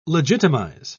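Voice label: "legitimise", British English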